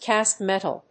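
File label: "Cast-metal", English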